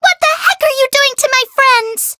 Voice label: Voice chant; myungwha